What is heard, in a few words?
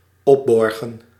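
"opborgen", Dutch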